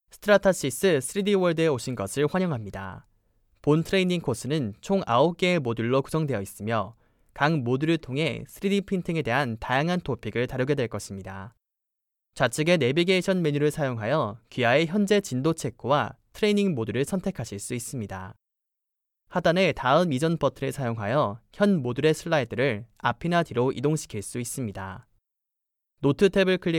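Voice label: korean male commercial; voice demos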